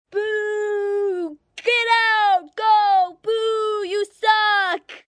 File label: Boo Get Out, Boo, 1 boy, 9 yrs, Booing